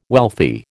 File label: "wealthy", American